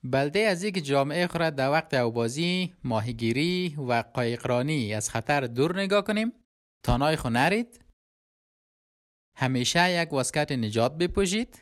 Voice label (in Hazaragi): Hazaragi Radio; Water Safety Community Announcement; Royal Life Saving Australia